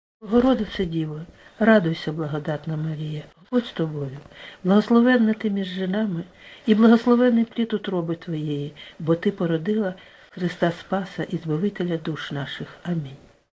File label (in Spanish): ave maria Ucraniano normal